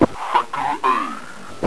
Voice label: sector a, radio, zombie male